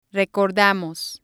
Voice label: "recordamos", spanish